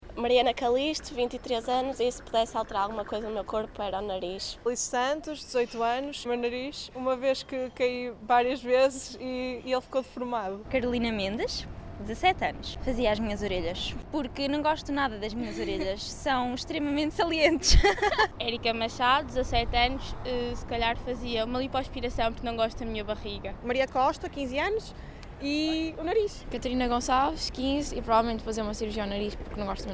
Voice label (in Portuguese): vox pop cirurgias